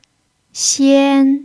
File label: "xian1", pinyin